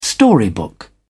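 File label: "storybook", British English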